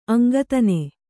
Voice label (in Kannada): "ಅಂಗತ್ತನೆ", Kannada